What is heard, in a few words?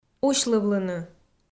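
"усьлывлыны", Komi, female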